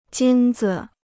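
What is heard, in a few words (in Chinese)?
"金子", Chinese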